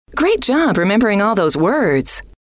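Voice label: great Job Remembering All Those Words, female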